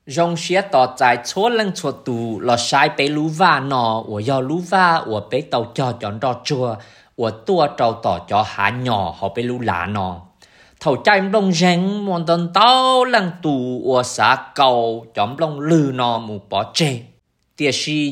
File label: Hmong; Prairieview